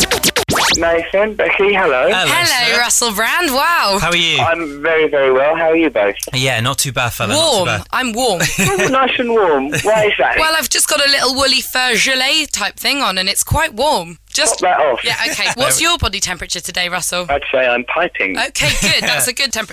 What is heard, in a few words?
Radio Presenting